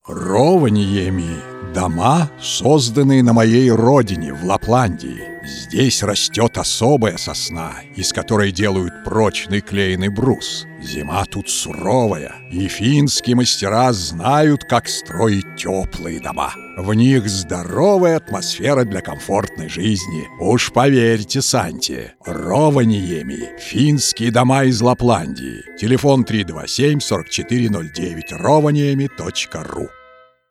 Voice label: Santa Claus (Radio ad)